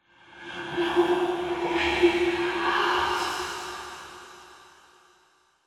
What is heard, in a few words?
Whispers Tense; Silent Hill Downpour